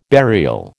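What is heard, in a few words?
"burial", American English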